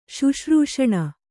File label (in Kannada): "ಶುಶ್ರೂಷಣ", Kannada